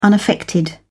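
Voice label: "unaffected", British English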